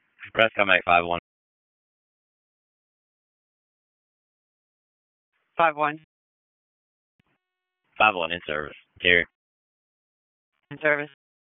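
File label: EMS Dispatch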